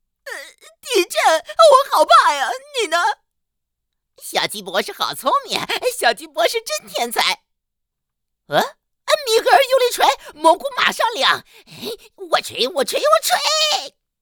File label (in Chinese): 尖细音